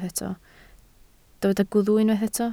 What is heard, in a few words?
"gwddf", Welsh